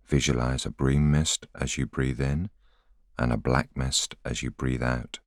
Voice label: voiceover